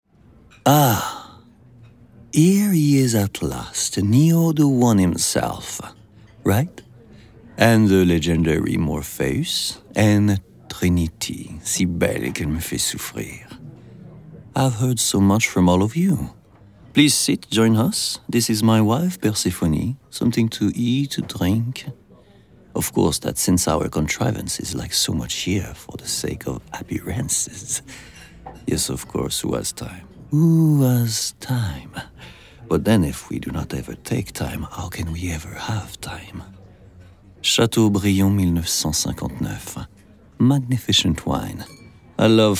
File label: en accent fr